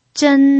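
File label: "zhen" Mandarin, first tone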